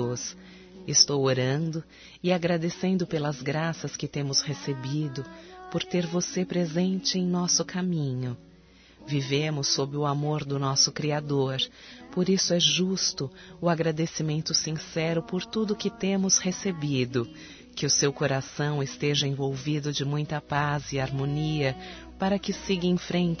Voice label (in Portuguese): Natal e Ano Novo Irmão Feminino; Telemensagens; Misto